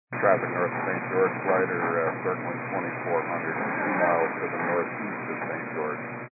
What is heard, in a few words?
122 725MHz, scanner radio